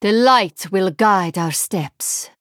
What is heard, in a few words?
Female Draenei Picked